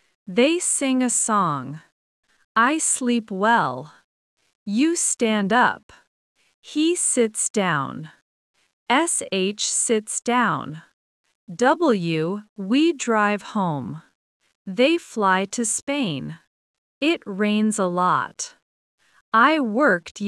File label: AI speech